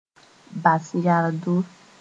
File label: "bas yardo", Mooré; trust